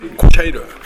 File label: "koshaeru", minamiyamagata